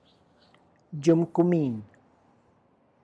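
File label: Tlacochahuaya Zapotec; cumin container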